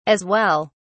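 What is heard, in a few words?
"as well", British English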